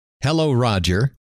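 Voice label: hello, male; trainer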